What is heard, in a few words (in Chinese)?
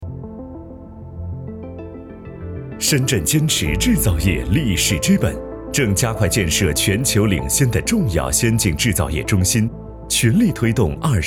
男 专题 特区